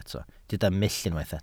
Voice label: "mull", Welsh

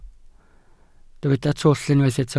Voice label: "twll", Welsh

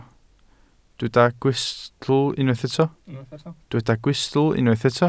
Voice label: "gwystl", Welsh

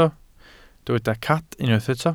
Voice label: "cad", welsh

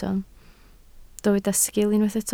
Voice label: "sgil", Welsh

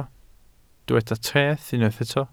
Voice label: "treth", Welsh

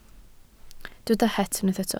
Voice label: "het", Welsh

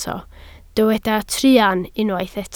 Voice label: "truan", Welsh

